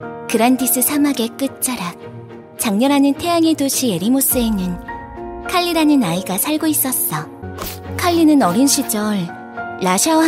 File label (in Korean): 얘기해주는 느낌의 게임 내레이션